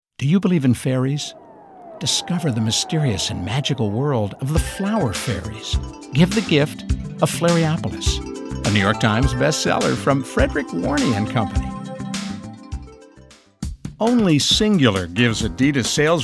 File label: english males